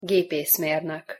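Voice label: "gepeszmernok", Hungarian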